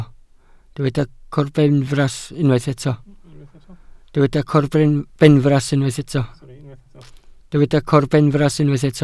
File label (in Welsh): "corbenfras" Welsh